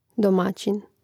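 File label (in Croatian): "domaćin", Hrvatski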